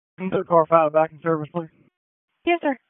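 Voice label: fire department dispatch, p25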